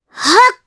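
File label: Vox Casting, Japanese; Mirianne; kingsraid; voices heroes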